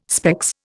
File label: "specs", Indian